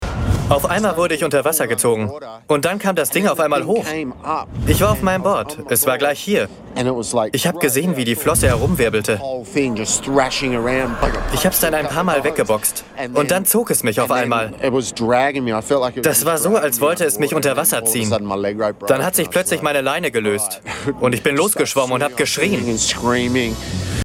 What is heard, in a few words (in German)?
Dynamisch